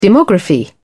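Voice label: "demography", British English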